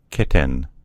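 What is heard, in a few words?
"kitten", US English; gcompris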